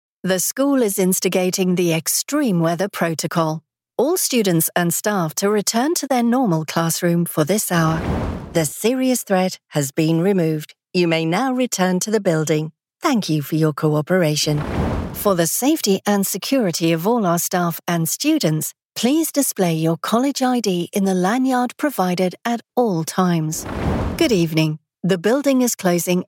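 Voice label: system announcements, Natural Female Voice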